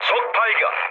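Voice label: Korean enemy detected mood low, speech; War Thunder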